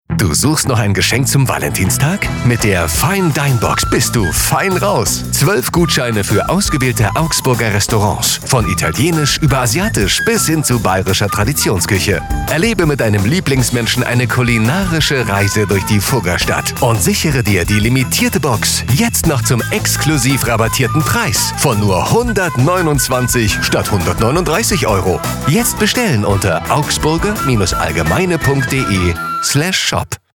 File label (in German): Funkspot; Pressedruck